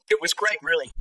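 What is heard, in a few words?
VoiceActing es, Male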